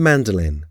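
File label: "mandolin", English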